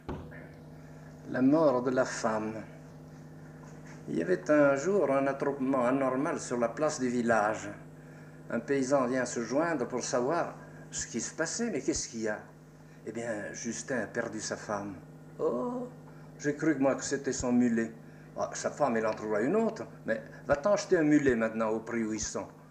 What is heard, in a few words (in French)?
Devinette francais